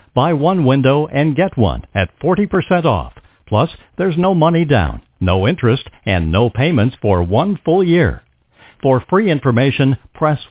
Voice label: Time of Day Service; Mobile Alabama; Zagranica